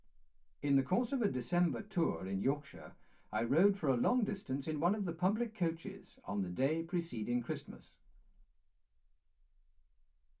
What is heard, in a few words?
male speech english